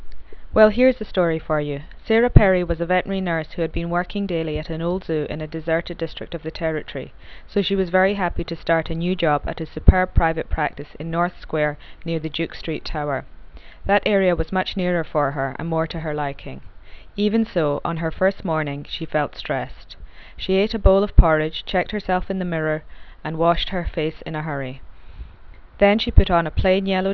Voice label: scottish